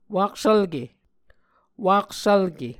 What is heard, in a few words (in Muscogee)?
"waksvlke", Muscogee